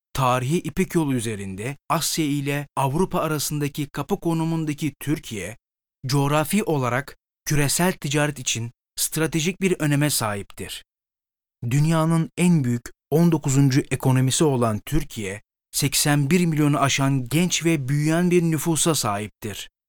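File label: Turkish, E-learning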